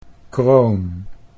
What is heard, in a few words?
"kroon", Dutch